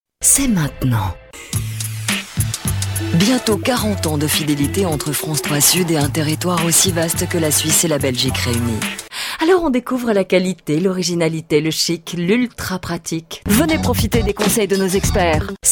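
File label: FR F various, French, female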